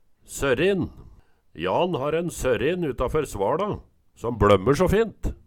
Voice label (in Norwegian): sørin, Numedalsmål